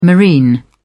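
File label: "marine", English, British English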